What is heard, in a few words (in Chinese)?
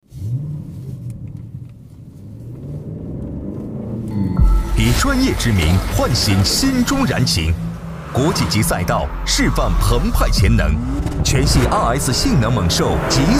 男 宣传片 汽车